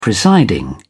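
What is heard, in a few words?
"presiding", British English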